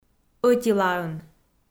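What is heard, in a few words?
"ӧтилаын" Komi, female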